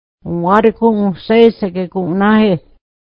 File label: can you stay for a while, mohawk